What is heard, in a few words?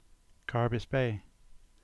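"Carbis Bay", English; upper part of settlement; place names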